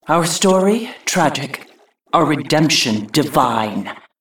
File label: Female Ankoan Play